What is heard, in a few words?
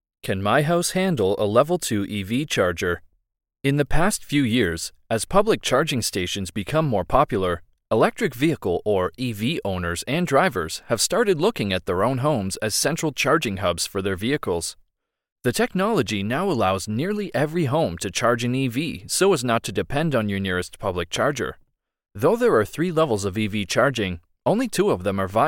Blog Voiceover; Can my house handle a level 2 charger